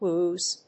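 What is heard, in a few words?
"woos", English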